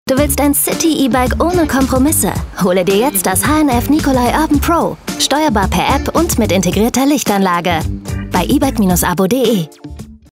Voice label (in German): werbung ebike abo city